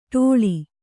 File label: "ಡೊಳ್ಳ", Kannada